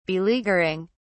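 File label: "beleaguering", British English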